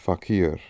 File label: "fakir", pronounciations; Fourth Way; Beelzebub